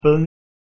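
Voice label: "plh1n", Proto-Indo-European; eastern origins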